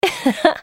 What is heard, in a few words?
spell cast effort; MissFortune